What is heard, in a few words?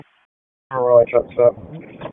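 Police Southwest District Dispatch, p25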